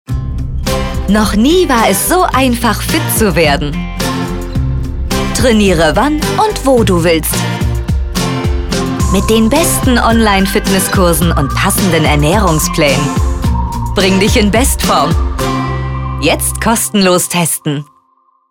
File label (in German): jung dynamisch